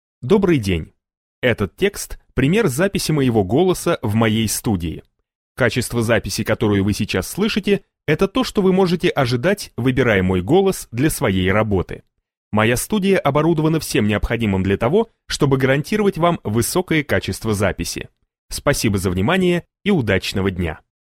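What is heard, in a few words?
Russian, male, corporate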